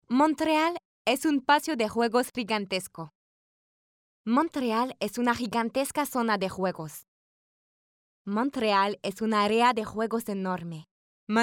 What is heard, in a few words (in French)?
demo espagnol